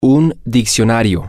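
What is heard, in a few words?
Spanish, male; a Dictionary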